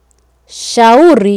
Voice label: "shauri", Swahili

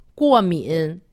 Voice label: "guomin", Chinese; Survival Chinese

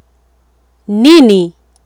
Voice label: "nini", Swahili

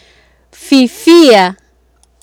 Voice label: "fifia", Swahili

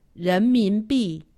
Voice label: "renminbi", Chinese; Survival Chinese

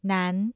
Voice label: nan2, Mandarin tone

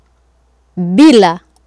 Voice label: "bila", Swahili

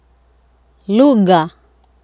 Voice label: "lugha", Swahili

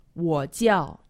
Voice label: "wo jiao", Chinese; Survival Chinese

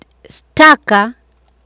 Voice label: "staka", Swahili

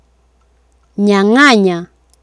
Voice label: "nyang'anya", Swahili

